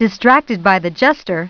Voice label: narr; Putt Time; merlin story